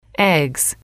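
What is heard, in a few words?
"eggs", English, female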